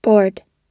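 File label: "board", American English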